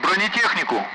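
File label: "armor", Russian; Speech; Target; Allied Flight; DCS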